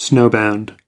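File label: "snowbound", US English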